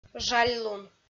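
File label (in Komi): "жальлун", Komi, female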